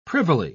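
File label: "privily", British English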